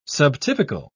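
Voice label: "subtypical", British English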